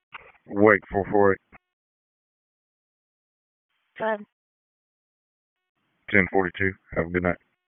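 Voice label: Dispatch, p25; WSO DISP 3; wake p25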